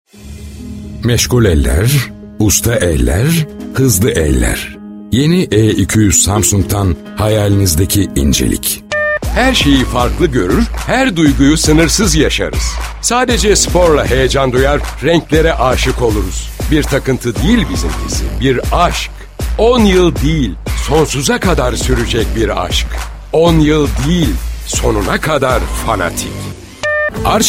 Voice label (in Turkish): reklam